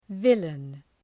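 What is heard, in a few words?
"villain", English word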